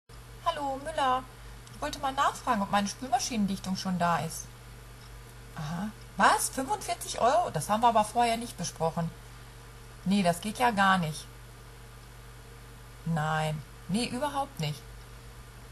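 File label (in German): telefongespräch, Sprecher, Frauen; Sprecherinnen, Weibliche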